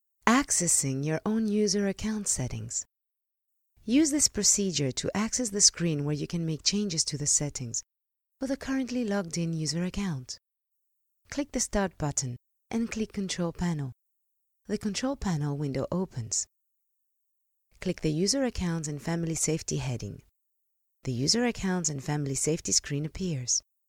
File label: english elearning